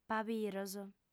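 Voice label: "pabiros", Sardinian, female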